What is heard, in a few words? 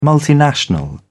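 "multinational", English, British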